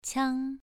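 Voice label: "qiang", tone 2, pronunciation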